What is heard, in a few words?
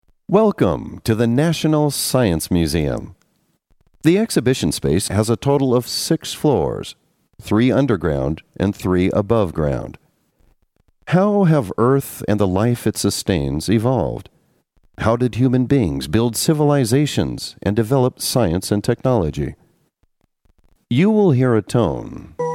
usa man